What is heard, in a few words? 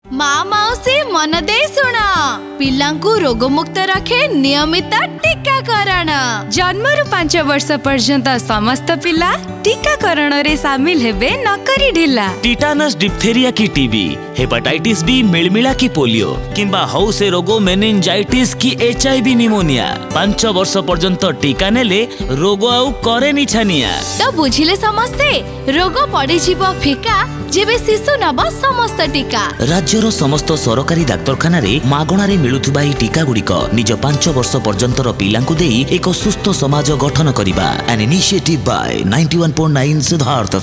radio spot; radio4child awards 2024